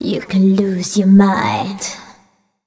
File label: fem spo, Vocals; Mutekki Media; Diamond Vocals 2